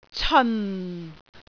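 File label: "ceon4", Cantonese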